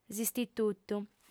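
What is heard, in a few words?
"s'istitutu", Sardinian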